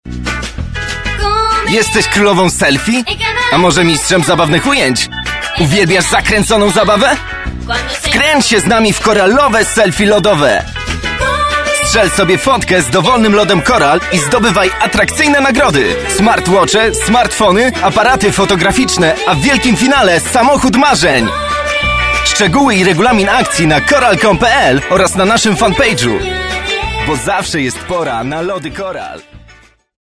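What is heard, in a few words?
Polish, male, commercial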